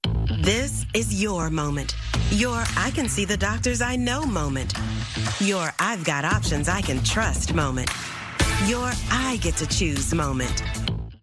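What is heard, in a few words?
English US, Commercial; GoLocalise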